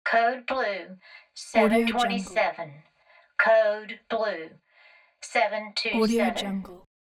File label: hospital code blue intercom announcement